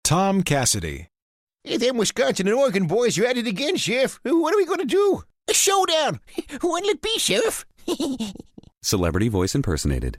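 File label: gabby, voice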